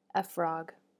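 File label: "a frog", English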